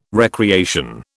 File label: "recreation", British